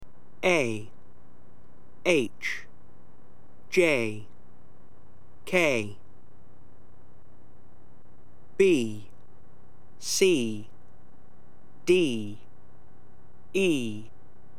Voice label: alphabet, pronunciation